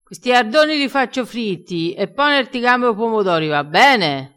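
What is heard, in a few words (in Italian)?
"e la su morte", dialettale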